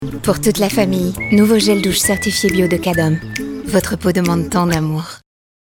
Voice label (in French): PUB TV; voix-off; Cadum